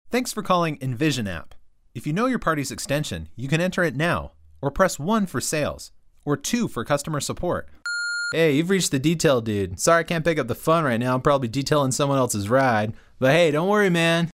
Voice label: IVR